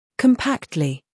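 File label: "humor", British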